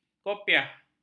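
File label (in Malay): "kopiah", Malay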